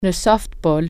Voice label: "softball", French

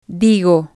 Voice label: "digo", spanish

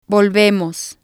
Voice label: "volvemos", Spanish

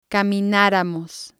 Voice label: "caminaramos", spanish

